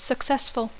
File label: "successful", English